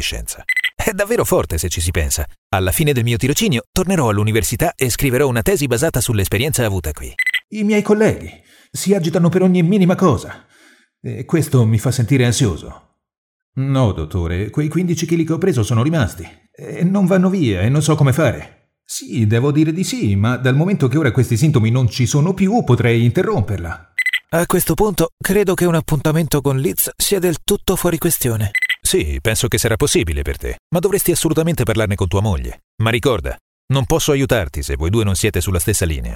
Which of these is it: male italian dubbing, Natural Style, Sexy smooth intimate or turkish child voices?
male italian dubbing